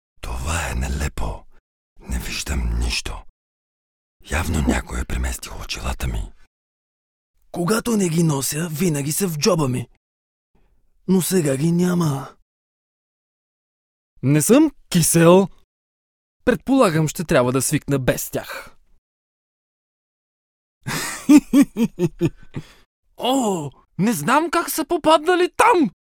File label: bulgarian m character; voice demos